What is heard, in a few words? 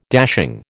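"dashing", American English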